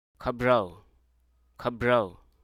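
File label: Hruso Aka; breakup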